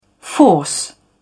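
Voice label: "force", en, uk British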